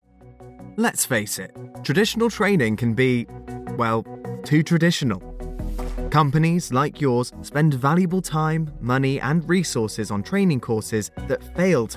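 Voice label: English UK, Corporate Explainer; GoLocalise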